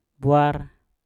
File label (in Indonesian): "bwar" bicara, Mpur